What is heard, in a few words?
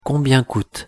pronunciation fr combien coute